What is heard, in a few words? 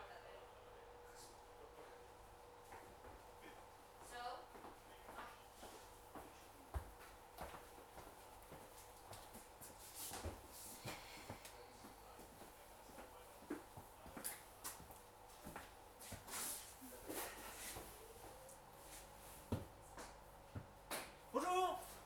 Harass French